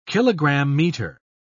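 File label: "kilogram-meter", British English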